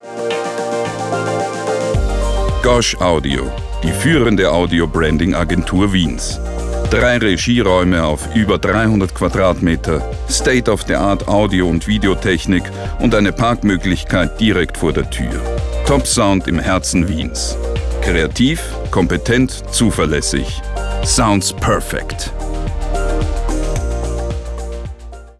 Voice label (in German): Werbung